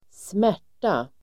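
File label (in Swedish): "smärta", Swedish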